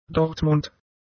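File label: "Dortmund", German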